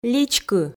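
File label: "личкы", Komi, female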